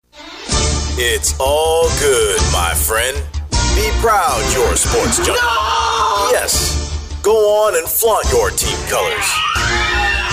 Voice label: vo broadcast